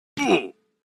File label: damage oof sound effect; Minecraft